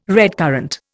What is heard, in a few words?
"redcurrant", Indian